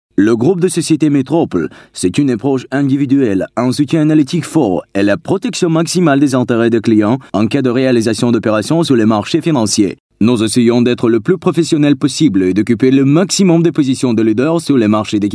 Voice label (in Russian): Озвучка французский